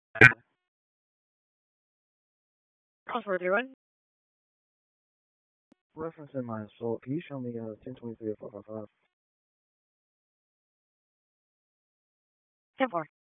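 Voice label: Police Southeast District Dispatch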